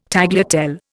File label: "tagliatelle", Indian